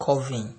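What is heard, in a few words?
"curving", Nigerian Pidgin, male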